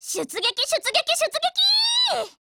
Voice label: attack, JP; C96Mod

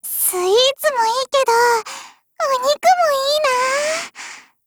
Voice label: dialogue, JP

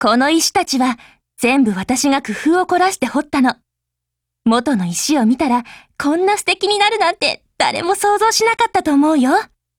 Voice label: DIALOGUE JP; MP443

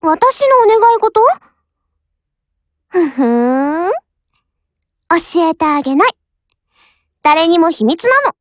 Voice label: JP; UMP45; TANABATA

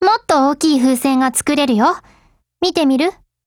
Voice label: dialogue, JP; Type 80

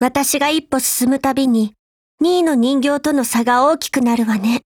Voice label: FEED JP; HK416Mod

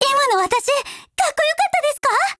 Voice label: Victory, JP; Peri

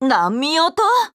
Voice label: Voice JP; Qiuhua

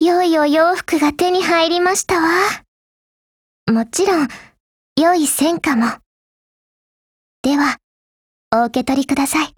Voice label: OPERATION OVER, JP; Webley

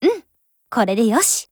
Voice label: PHRASE JP; 92typeMod

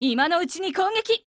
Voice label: ATTACK JP

